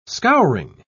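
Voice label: "scouring", British English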